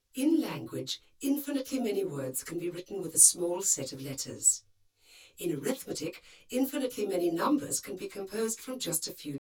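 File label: speech female azimuth 45 spread 120 incoherent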